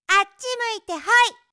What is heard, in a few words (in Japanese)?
あっちむいて、ホイ, 遊ぶ女の子